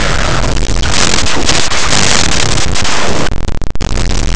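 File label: "imposible", Spanish; laboratori fonetica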